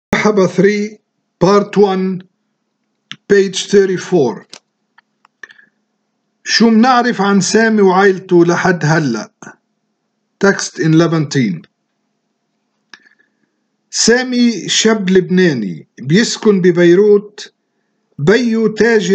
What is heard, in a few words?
levantine